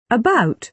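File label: "about", British English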